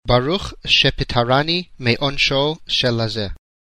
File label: sefardit